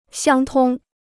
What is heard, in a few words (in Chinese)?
"相通", Chinese